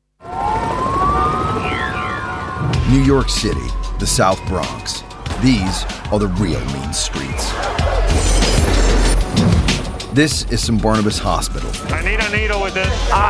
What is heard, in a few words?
Narration Us Accent; 911